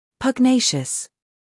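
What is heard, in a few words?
"humor", British; pugnacious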